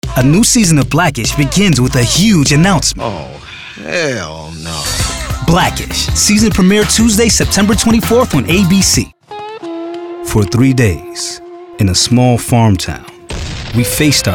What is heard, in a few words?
Promo